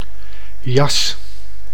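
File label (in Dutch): "jas", Dutch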